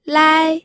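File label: "lai", first tone, pinyin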